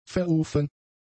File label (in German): "verrufen", german; adjectives